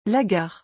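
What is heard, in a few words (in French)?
"La gare", French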